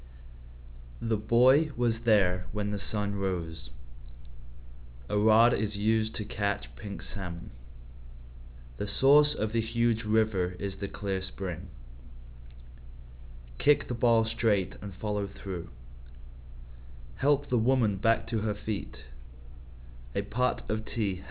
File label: british, open speech; uk